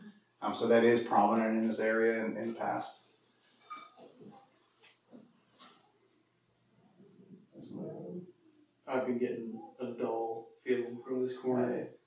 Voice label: Barbershop Basement by Steps EVP; Social Club Barbershop – Return Investigation 2024